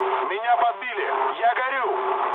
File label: on fire, mood low, speech ru; War Thunder